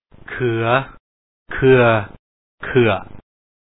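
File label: khue, ເຂືອ ເຂື່ອ ເຂື້ອ, Lao; ເຂືອ/kfa; Reading Exercise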